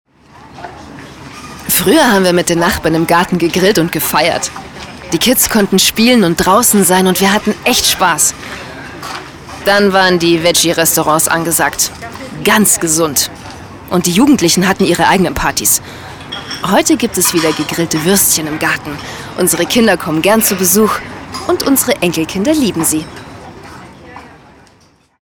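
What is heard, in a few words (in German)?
Testimonial Grillen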